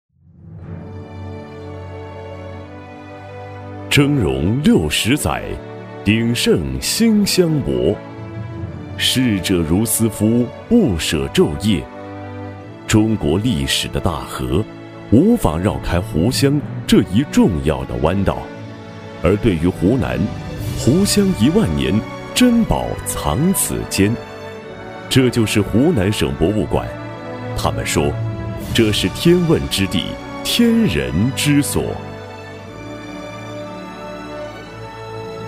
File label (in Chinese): 男 宣传片 企宣; 鼎盛; 央视